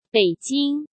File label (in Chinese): "北京", Chinese; Chinese Grammar Wiki